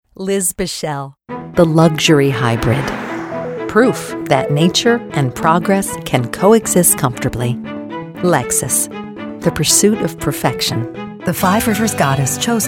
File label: F Commercial